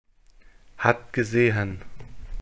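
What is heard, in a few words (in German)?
"hat gesehen", German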